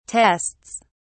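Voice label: "tests", English, British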